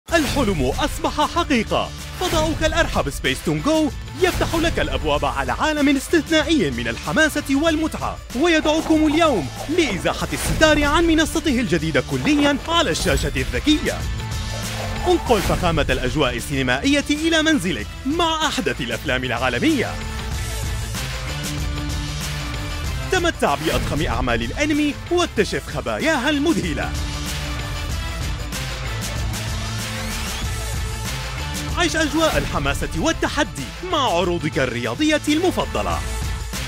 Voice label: Arabic M AD